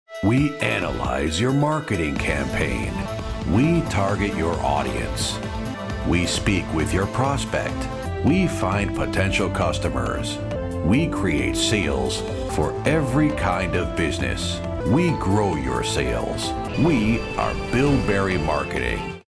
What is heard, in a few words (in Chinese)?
外籍英男 品牌宣传 深沉